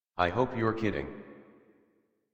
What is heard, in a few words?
Happy Adult, en, Dialog; Warframe; Duviri Paradox Quest